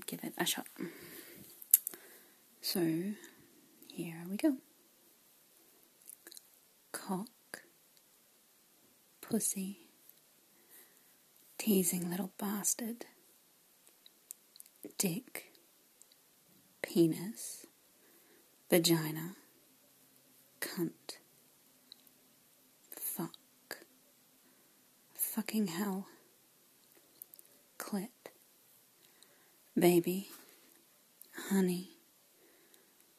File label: Accent Challenge